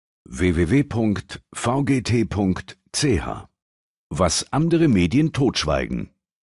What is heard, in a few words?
radio spot; totschweigen